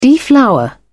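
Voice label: "deflower", British English, dictionary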